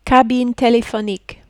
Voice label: "cabine telephonique", French

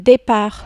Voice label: "depart", French

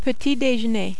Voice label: "petit dejeuner", French

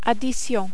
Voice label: "addition", French

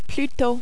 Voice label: "plutot", French

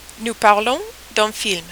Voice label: rhythm, French

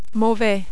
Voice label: "mauvais", French

